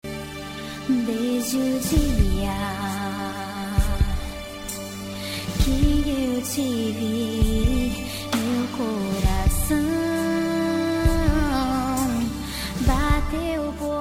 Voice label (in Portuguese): dia dos namorados reconciliação, voz feminina